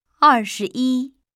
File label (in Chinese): "二十一", Chinese